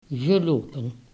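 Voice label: "gelopen", Dutch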